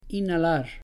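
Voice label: "inhalar", Spanish; pronouncing h and j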